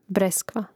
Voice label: "breskva", Hrvatski; breskva (breskve) imenica

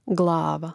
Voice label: "glava", Hrvatski; mreznik

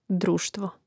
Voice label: "društvo", hrvatski; mreznik

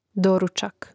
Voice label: "doručak", Hrvatski; mreznik

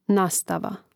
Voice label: "nastava", Hrvatski

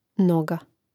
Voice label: "noga", Hrvatski; neizvorni; mreznik

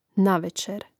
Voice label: "navečer", Hrvatski; neizvorni; mreznik

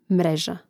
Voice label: "mreža", Hrvatski

